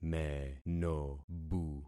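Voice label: "menobu", concatenated syllables; Affixing experiment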